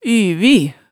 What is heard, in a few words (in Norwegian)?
"yvi", hemsedalsmaalet tale